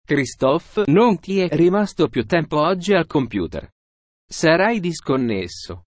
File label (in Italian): speech sample it